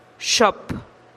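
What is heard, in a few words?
"xop", seis, Teotitlán del Valle Zapotec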